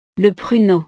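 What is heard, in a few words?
"le pruneau", French; frutas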